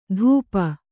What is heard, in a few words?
"ಧೂಪ", Kannada